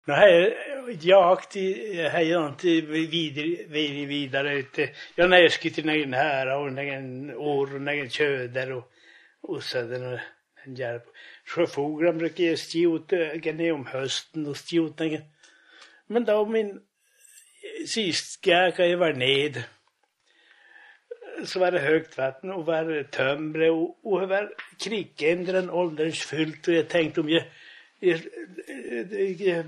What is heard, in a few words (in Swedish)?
Nederlulea, dialekter